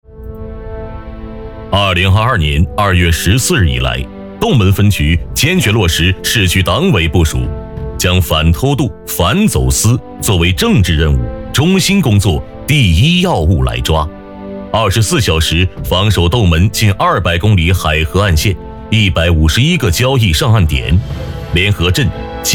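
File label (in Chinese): 男【党建】; 斗门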